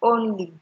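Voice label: "only", Nigerian Pidgin, female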